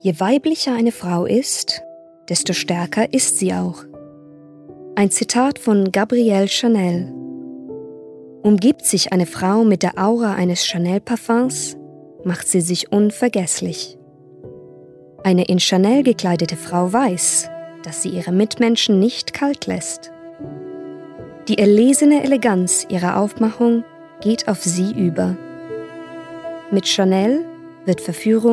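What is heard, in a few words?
German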